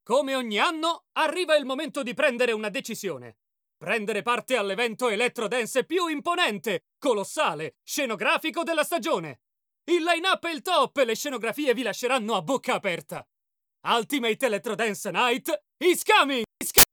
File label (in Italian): Spot Radio It, male, Italian; radio advert